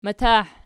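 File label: "matah" nominative, Archi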